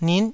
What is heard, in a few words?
"nit9", taiwanese